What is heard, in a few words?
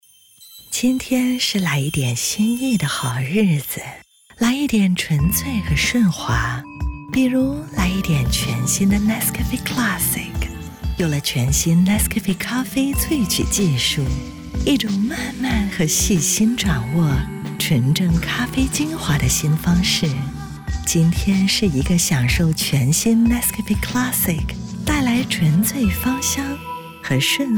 Radio Mand; Nescafe Sensation